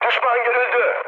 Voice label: enemy detected, mood high, speech tur